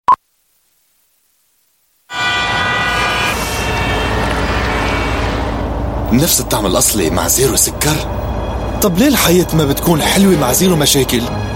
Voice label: Arabic Male

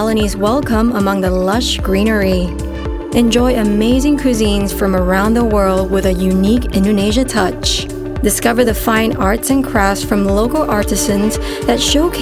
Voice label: Asian English Visit Ubud

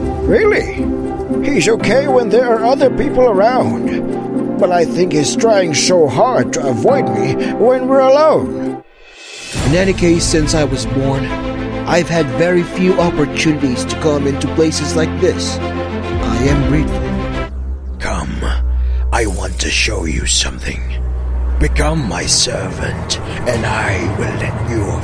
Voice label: Tagalog English Acting

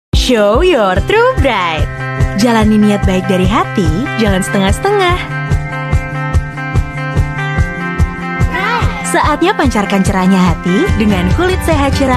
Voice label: Bahasa Indonesia; Nature E

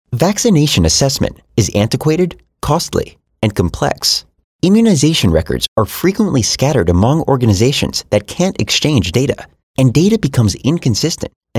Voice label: US English E-Learning

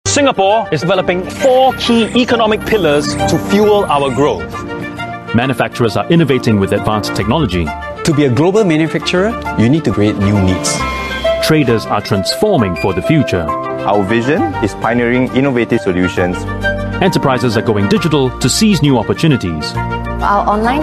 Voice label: Asian English trailer; Our 2030 Vision

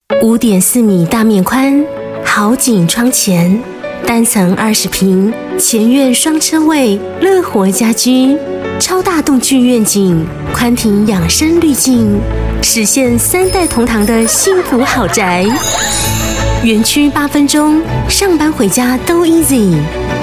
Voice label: Construction Mandarin Taiwan, Female

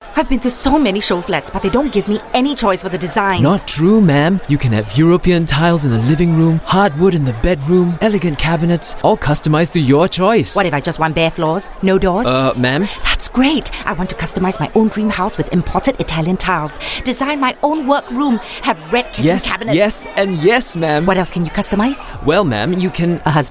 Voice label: Asian English Flexi Neutral; Qing Jian CoSpace

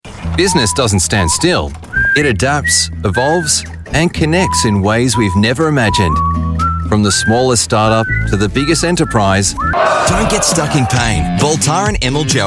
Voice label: AU English Commercials

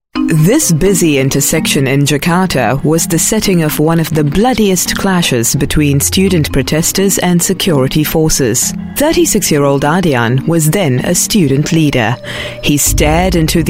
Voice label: Documentary, English Singaporean Female

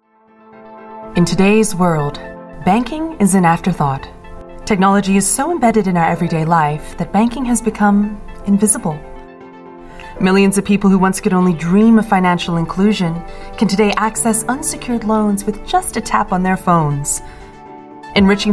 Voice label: Asian English One Team

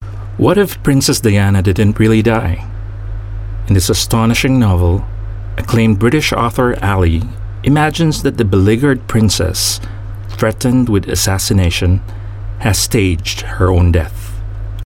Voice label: Tagalog English M

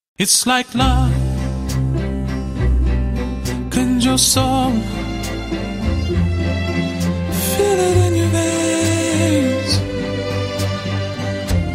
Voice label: Asian English Tourism; Garuda Indonesia; Tourism Australia

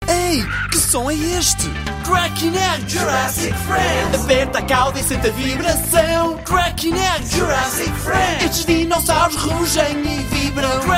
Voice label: Iberian Portuguese Commercial

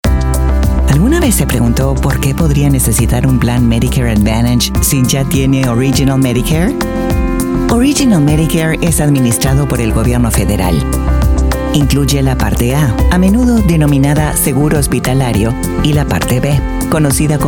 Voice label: Latin Spanish; Medicare

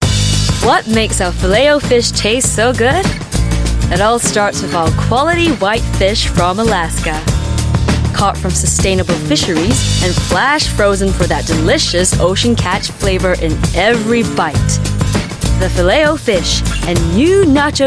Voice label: Asian English Fast Food

